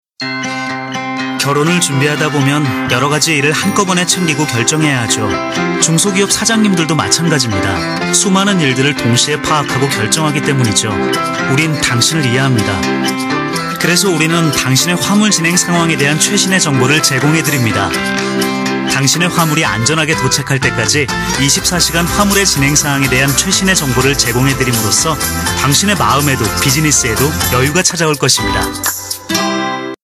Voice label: 일반인 대학생 느낌 바이럴 광고; 페덱스; 마음의 평화편

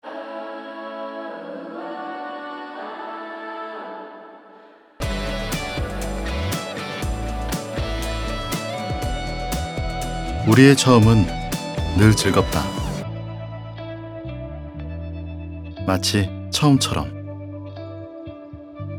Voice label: 청년느낌; 처음처럼

